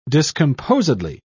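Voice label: "discomposedly", English, British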